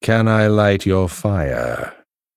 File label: Male Human Play